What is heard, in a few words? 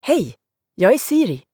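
Swedish, Sweden; iOS 26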